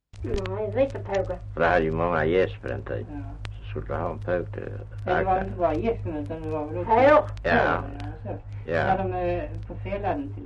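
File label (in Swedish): Östra Ingelstad Skåne, Svenska sagor, dialekter; Folkminnen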